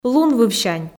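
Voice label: "лунвывсянь", Komi, female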